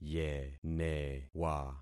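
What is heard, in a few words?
"yenewa", concatenated syllables; Affixing experiment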